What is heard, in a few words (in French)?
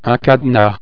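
"cadenas", French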